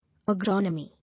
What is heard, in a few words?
"Agronomy", English